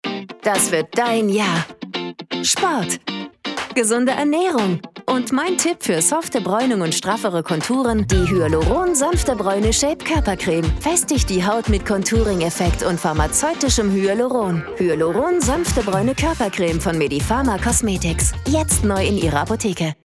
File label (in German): Werbung positv energetisch; Hyaluron Sanfte Braeune Koerpercreme